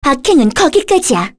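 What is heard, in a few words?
Vox Skill, Korean; Kirze; kingsraid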